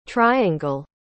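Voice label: "triangle", English; figuras geométricas en inglés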